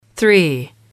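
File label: "three", English, female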